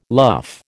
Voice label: "luff", American